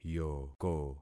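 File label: "yoko", concatenated syllables; Affixing experiment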